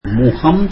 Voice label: tamil face